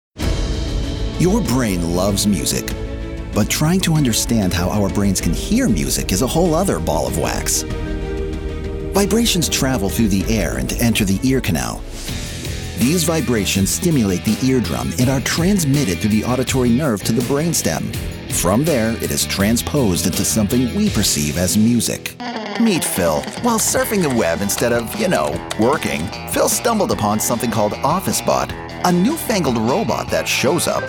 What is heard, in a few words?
Narration; voice over